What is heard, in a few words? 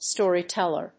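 "storyteller", English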